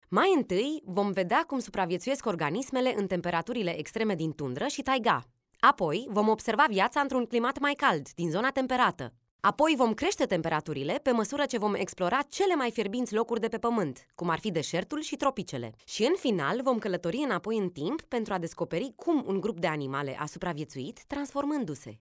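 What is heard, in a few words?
romanian female narration; voice demos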